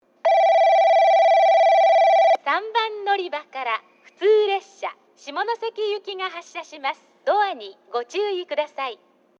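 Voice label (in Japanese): 3番のりば発車放送, 女声; 行橋; 普通 下関行き; 標準の放送内容です。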